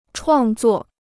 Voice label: "创作", Chinese

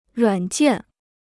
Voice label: "软件", Chinese

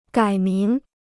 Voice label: "改名", Chinese

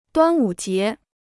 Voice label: "端午节", Chinese

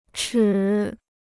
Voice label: "尺", Chinese

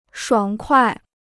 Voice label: "爽快", Chinese

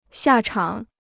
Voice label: "下场", Chinese

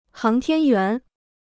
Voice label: "航天员", Chinese

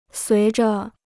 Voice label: "随着", Chinese

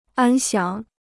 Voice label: "安详", Chinese

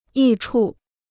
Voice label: "益处", Chinese

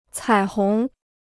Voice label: "彩虹", Chinese

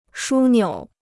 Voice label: "枢纽", Chinese

